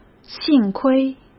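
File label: "幸亏", Mandarin Chinese; hsk; chinese-flashcards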